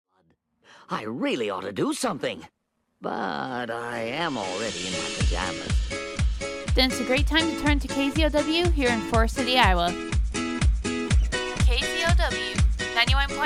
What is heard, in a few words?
Station Promo